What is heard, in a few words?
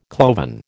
"cloven", American